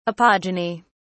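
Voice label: "apogeny", British English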